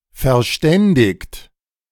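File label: "verständigt", German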